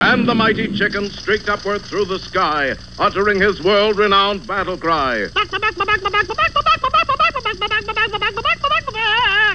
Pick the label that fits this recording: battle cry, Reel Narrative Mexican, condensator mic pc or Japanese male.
battle cry